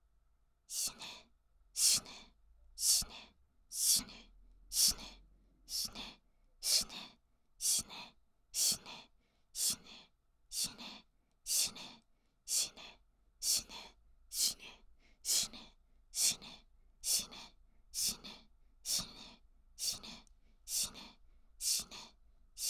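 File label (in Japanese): "しね", Japanese; 死ね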